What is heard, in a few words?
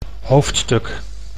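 "hoofdstuk", Dutch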